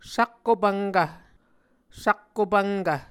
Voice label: "sakkopvnkv", muscogee